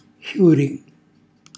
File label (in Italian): "xjuri", Calabrese; χjùri; fiore; cucinacalabrese; glossario